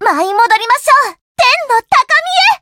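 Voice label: Voice variable chant Japanese; suzette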